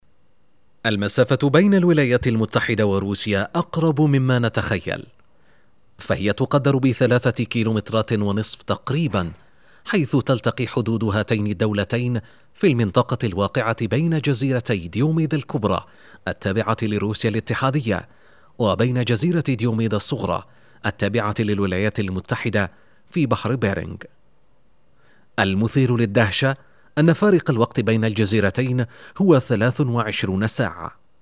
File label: institutional, ARABIC MALE